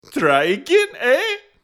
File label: Character Voice